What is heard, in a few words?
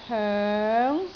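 "hoeng2", Cantonese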